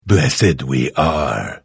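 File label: Hello, Vo; Morrowind GOTY GOG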